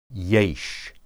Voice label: "yesh", Hebrew